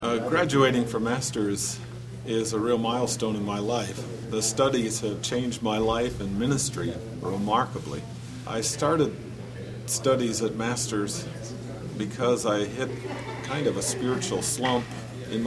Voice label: Testimonial